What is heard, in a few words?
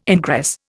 "ingress", Indian